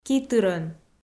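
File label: "китырӧн", Komi, female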